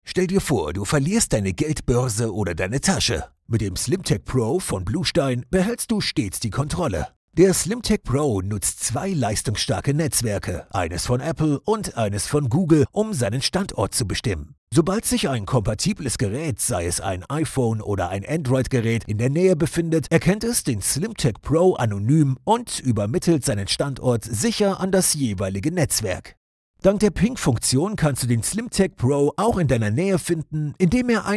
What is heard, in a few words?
male german explainer; slimtag pro